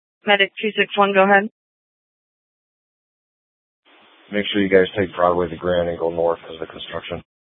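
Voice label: Gilbert, Fire Department, scanner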